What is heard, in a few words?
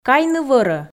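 "кайны вӧрӧ", Komi, female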